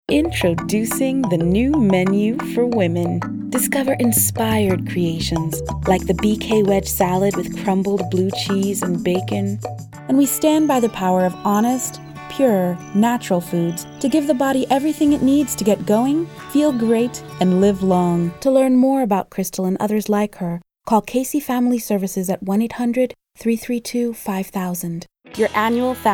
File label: Commercial